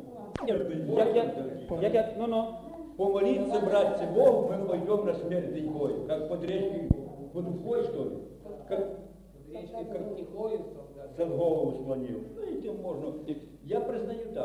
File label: interview